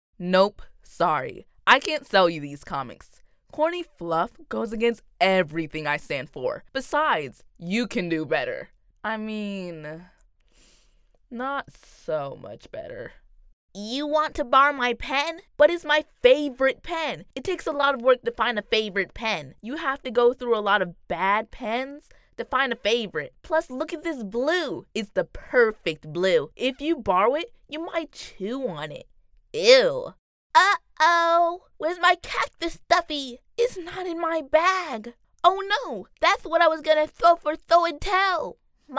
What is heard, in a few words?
Animation VO sample